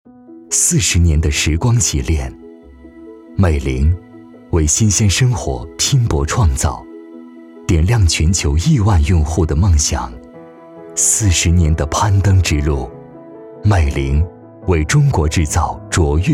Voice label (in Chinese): 男 企业 质感; 美菱